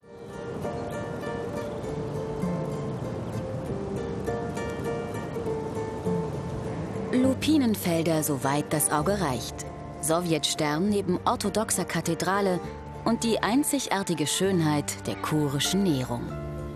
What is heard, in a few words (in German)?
Reportage, Sprecher; Kaliningrad; warme, ausrucksstarke Stimme; MDR